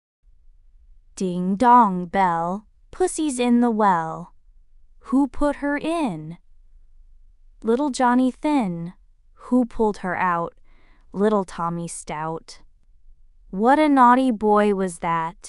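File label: female US; Ding Dong Bell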